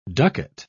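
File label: "ducat", British English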